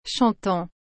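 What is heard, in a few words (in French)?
"chantant", French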